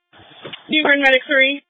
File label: EMS Dispatch